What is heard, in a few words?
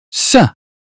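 British phonetics sound say; 英语音标发音（剑桥版）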